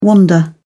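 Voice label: "wander", English, British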